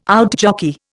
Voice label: "outjockey", Indian